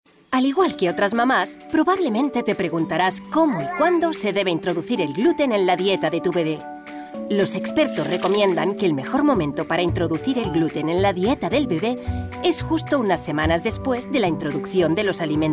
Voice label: female spanish baby food explicative; ideasonora

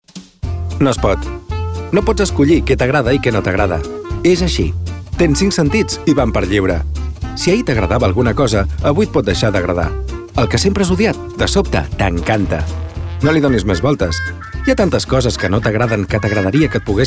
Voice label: male catalan drink comercial

